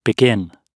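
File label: "begin", British English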